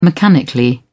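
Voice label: "mechanically", British English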